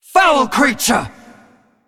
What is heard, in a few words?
Female Green Dragon Attack